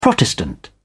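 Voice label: "protestant", British English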